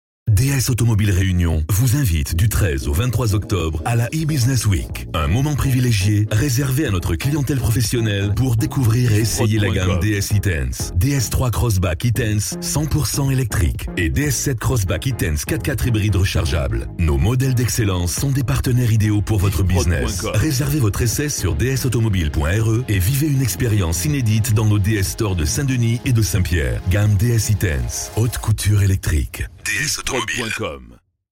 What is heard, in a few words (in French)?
Spot Automobiles, publicite; DS; E Tense